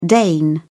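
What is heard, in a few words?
"dane", British English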